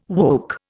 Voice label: "woke", Indian